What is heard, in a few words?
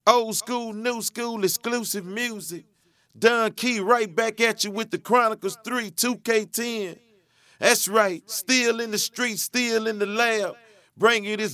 Commercial